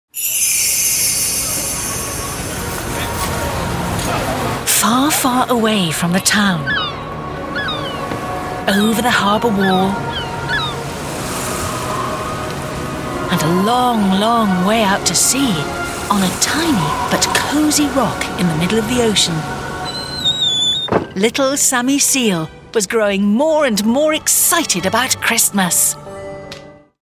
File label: storytelling